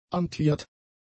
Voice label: "amtiert", German; adjectives

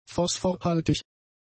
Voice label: "phosphorhaltig", German; adjectives

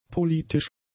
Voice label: "politisch", German; adjectives

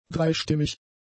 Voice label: "dreistimmig", German; adjectives

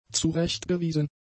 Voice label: "zurechtgewiesen", German; adjectives

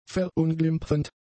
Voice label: "verunglimpfend", German; adjectives

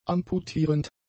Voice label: "amputierend", German; adjectives

